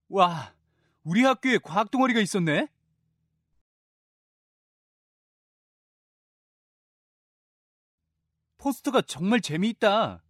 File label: park english